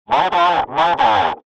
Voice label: emergency radio pilot mayday mayday; sound effects one

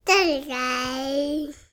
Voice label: human toddler 18 months boy say word gibberish

